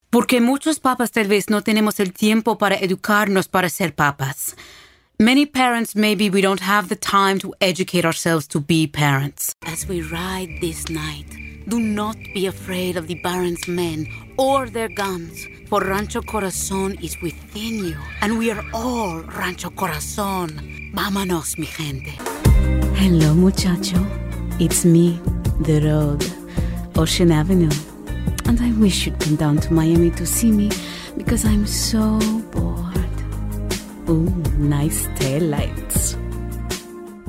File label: SPANISH